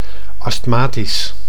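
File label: "astmatisch", Dutch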